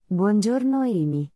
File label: multilingual narration